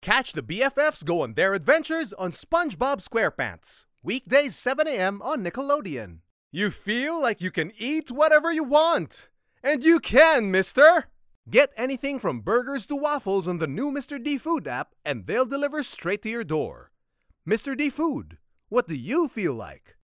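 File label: English Accented Male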